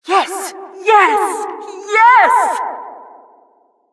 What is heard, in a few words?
announcer killstreak